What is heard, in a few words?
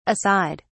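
"a side", British English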